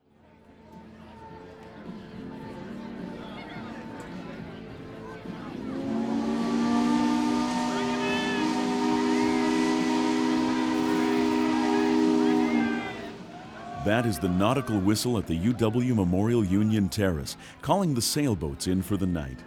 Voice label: announcer